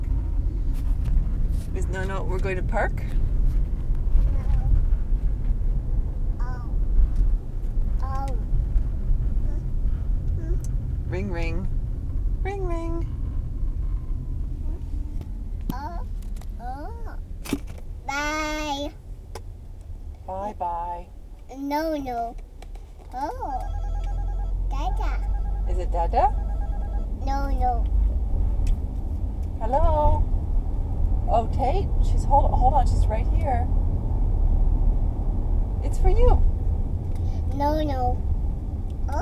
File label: Phone call